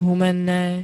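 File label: "Humenné", Slovak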